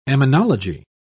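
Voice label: "emmenology", British English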